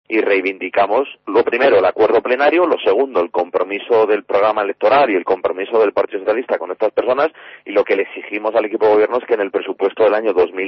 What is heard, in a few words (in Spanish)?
noticias